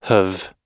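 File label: "have", US English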